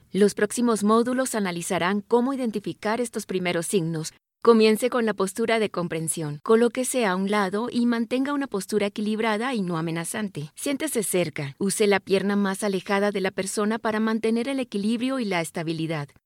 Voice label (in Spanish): AUDIO E LEARNING CUIDADOS SALUD